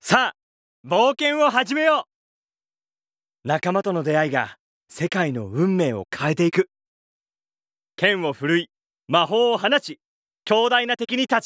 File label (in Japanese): ナレーション